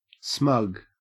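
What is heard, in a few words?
"smug", Australian English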